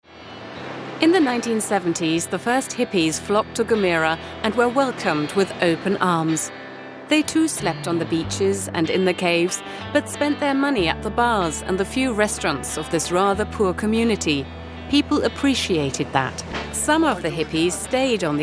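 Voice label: English, documentary; arte RE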